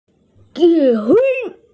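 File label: "command", Mandarin, hearing-impaired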